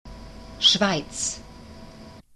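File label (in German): "schweiz", German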